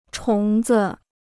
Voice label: "虫子", Chinese

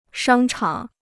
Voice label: "商场", Chinese

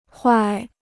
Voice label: "坏", Chinese

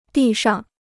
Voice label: "地上", Chinese

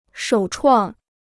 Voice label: "首创", Chinese

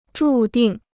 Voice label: "注定", Chinese

